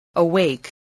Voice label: "awake", english

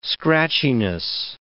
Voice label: "scratchiness", English

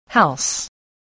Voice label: "house", voice spelling

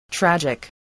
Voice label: "tragic", voice

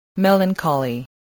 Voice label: "melancholy", voice, spelling

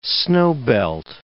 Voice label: "snowbelt", English

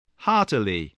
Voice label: "heartily", English, spelling

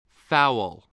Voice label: "fowl", English

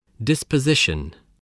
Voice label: "disposition", spelling

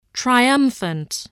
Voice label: "triumphant", voice

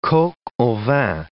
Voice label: "coq au vin", English

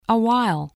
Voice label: "awhile", english

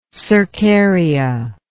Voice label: "cercarian", English

